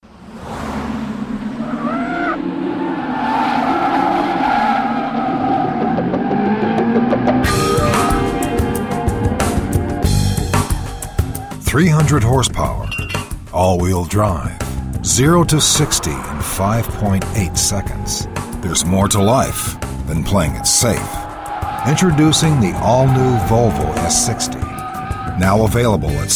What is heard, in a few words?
Car Ads Announcer